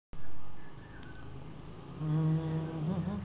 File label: voice message